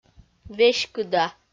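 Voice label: "веськыда", Komi, female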